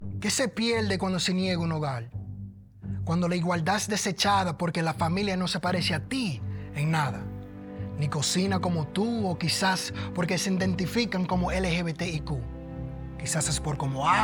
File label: Spoken Word Radio Spanish; NFHA-HUD